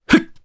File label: Landing, jp; kingsraid